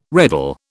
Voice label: "reddle", British